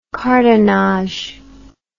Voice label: "cartonnage", English

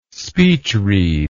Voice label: "speechread", english

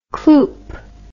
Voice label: "cloop", English

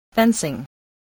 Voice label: "fencing", voice

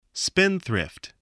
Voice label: "spendthrift", English voice

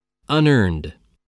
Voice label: "unearned", English spelling voice

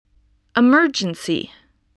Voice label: "emergency", spelling

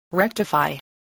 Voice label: "rectify", spelling voice

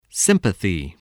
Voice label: "sympathy", English

